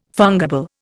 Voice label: "fungible", Indian